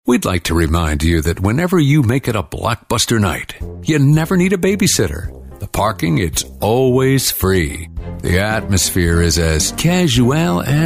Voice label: commercial voice over

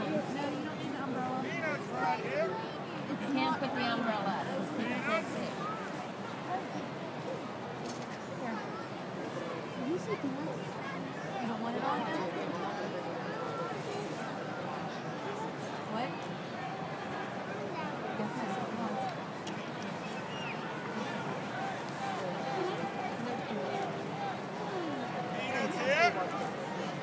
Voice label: cute kid at the pirates game

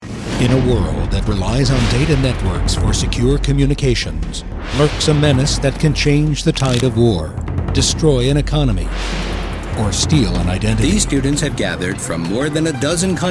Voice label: short narration

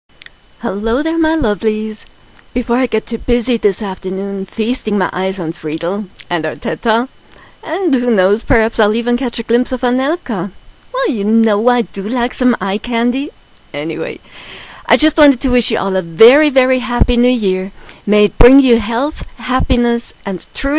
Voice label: new year's greetings

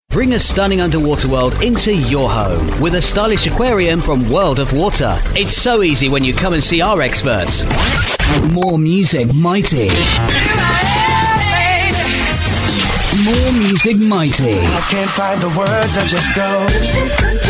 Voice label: UK voice over

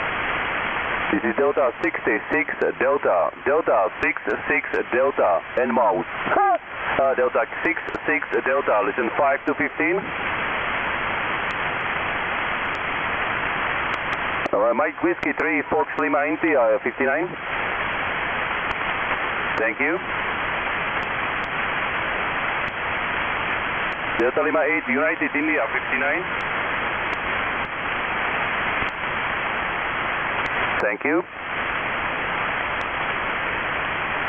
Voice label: 24mhz ssb

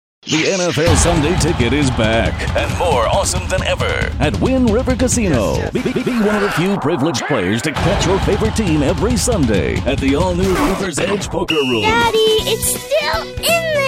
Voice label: commercial

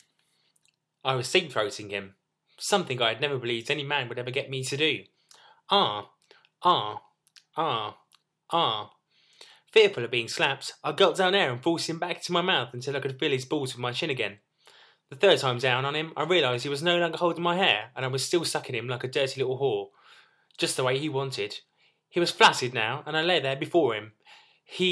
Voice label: erotic fiction

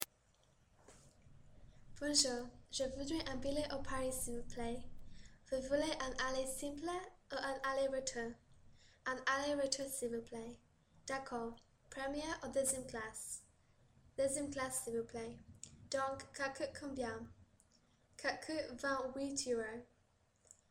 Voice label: train station conversation

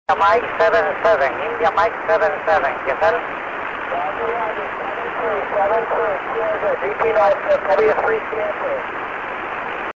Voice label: 6m ssb

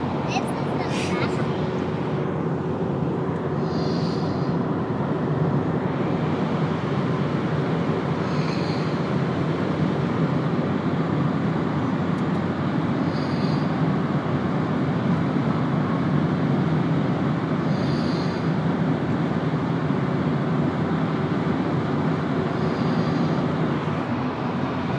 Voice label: car chat

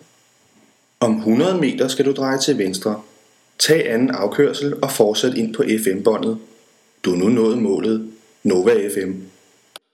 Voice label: tomtom voice

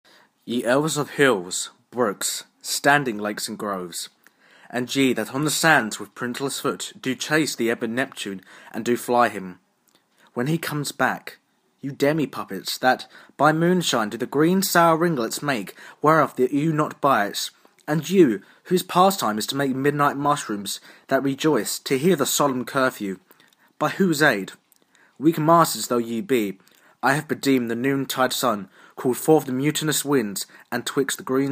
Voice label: Shakespeare monologue

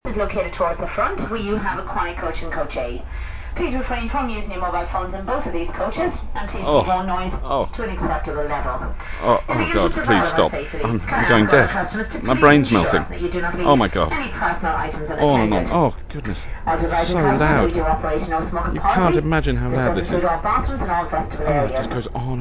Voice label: train announcer

